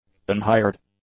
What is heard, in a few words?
"unhired", english; adjectives